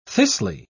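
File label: "thistly", British English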